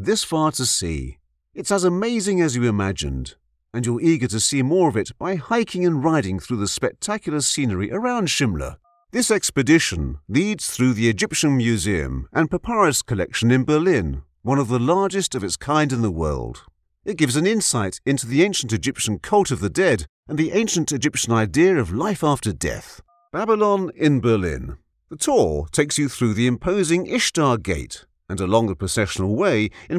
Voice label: E-Learning Showreel; Google Expeditions